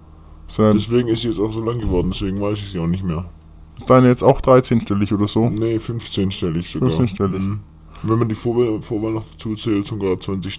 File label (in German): telefon